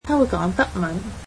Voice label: "she can speak German", Cantonese